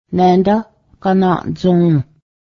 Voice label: here is the drum, Cayuga

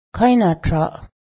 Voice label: "knife", Cayuga

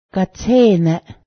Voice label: animal, Cayuga

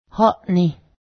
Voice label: father, Cayuga

